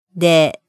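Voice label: "de", Japanese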